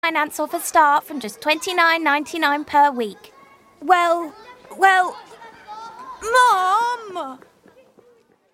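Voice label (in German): UK English Kindlich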